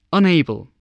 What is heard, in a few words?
"unable", English spelling voice